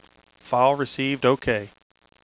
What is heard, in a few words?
File Received OK (Male)